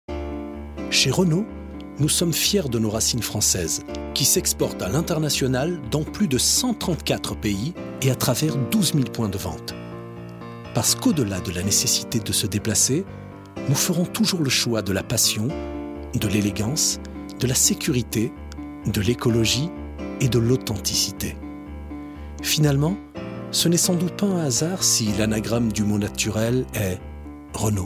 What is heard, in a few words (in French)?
Institutionnel; Renault; maquette